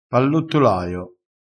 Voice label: "pallottolaio", crespinese

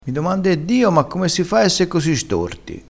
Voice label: "mi domando", crespinese

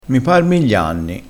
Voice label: "miglianni", crespinese

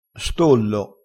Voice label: "stollo", crespinese

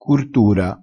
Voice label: "curtura", crespinese

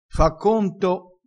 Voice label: "fa conto", crespinese

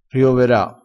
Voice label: "riovera", crespinese

